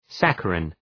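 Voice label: "saccharin", English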